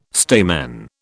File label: "stamen", British